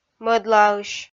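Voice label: "мӧдлаысь", Komi-Zyrian, female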